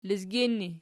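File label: "lezgin" ergative, Archi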